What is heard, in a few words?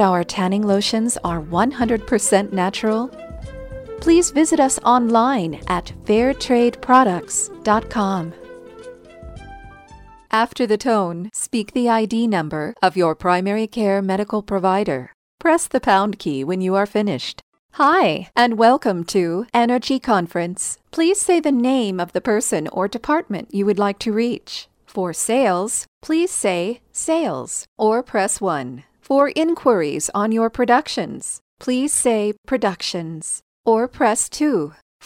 On Hold IVR